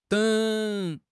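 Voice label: "deng3", Chinese; HSK; HSK4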